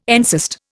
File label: "encyst", Indian